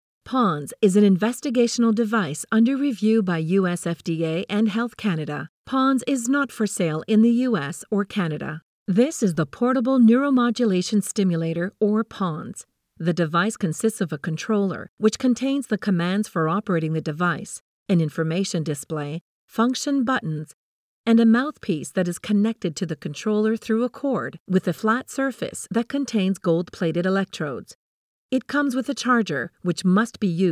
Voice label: narration